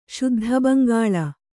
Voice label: "ಶುದ್ಧಬಂಗಾಳ", Kannada

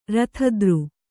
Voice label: "ರಥದ್ರು", Kannada

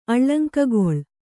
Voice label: "ಅಳಂಕೆಗೊಳ್", Kannada

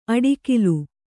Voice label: "ಅಡಿಕಿಲು", Kannada

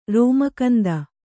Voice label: "ರೋಮಕಂದ", Kannada

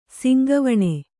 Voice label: "ಸಿಂಗವಣೆ", Kannada

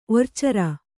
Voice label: "ಒರ್ಚೇರೆ", Kannada

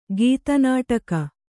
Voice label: "ಗೀತನಾಟಕ", Kannada

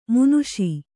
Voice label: "ಮಾನುಷ", Kannada

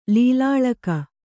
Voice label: "ಲೀಲಾಳಕ", Kannada

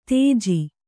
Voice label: "ತೇಜಿ", Kannada

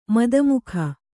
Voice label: "ಮದಮುಖ", Kannada

